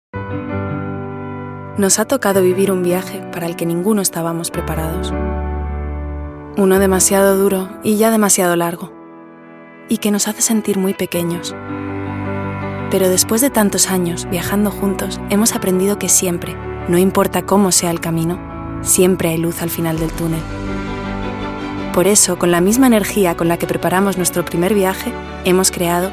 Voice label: Nostálgica ES